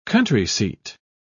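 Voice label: "countryseat", British English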